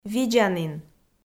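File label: "видзанін", Komi, female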